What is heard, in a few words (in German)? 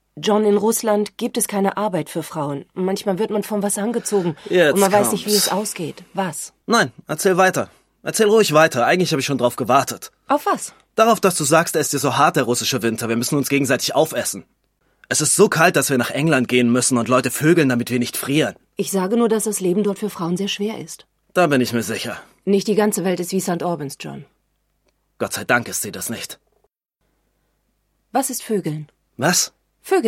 Synchron, Aufgebracht